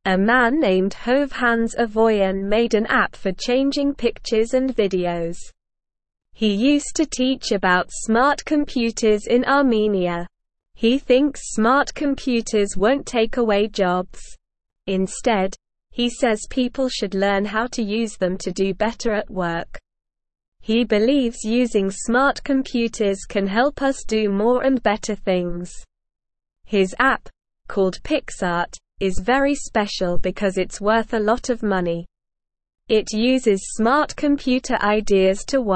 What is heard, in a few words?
English Newsroom Beginner SLOW Reading; Man Makes App to Change Pictures and Videos